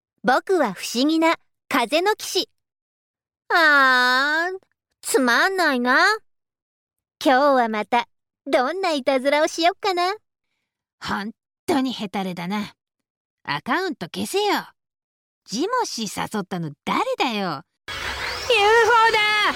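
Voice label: Japanese, female, Character Voices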